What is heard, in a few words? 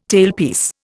"tailpiece", Indian